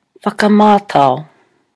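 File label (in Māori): "whakamatao", Māori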